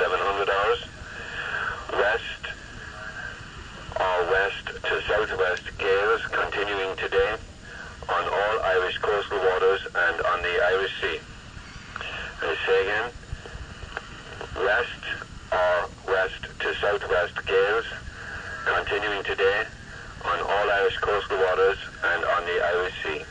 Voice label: radio, 2182kHz, Marine Audio HF, Ireland; Valentia Radio EJK